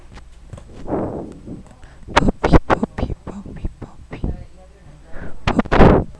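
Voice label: "puppy", spoken word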